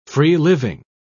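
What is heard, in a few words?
"free-living", British English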